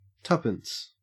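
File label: "tuppence", Australian English; pronunciations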